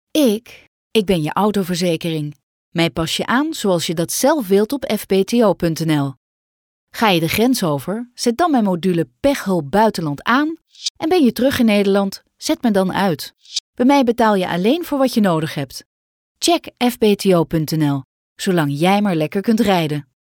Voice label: commercial; fbto